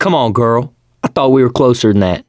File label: friendly fire female, mechanic, survivor, voice